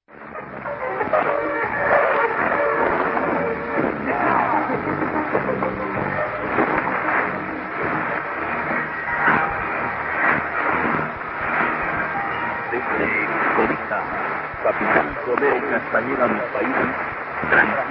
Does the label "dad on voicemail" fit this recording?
No